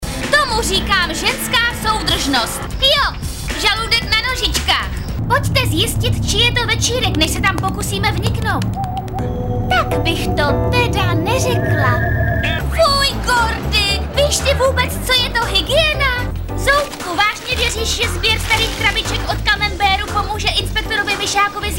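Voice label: female Czech drama mix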